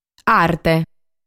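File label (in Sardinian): "Arte", Sardinian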